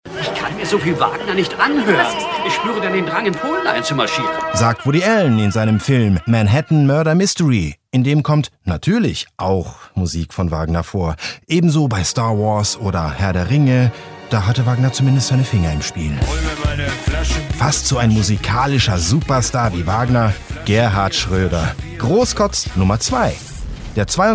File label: german radio imaging; Deutschsprecher aus Berlin